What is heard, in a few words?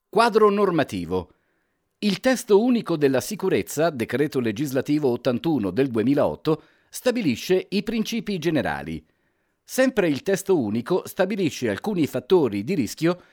ITALIAN